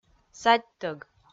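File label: "садьтӧг", Komi, female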